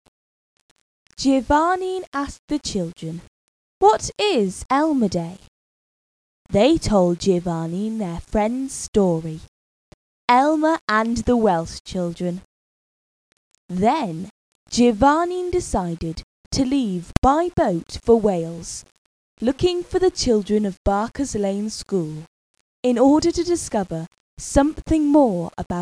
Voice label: english version, english teacher's voice